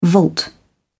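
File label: "vault", British English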